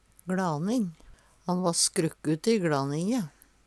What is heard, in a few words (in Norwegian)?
"gLaning", Numedalsmål; blir ikkje bruka i fleirtal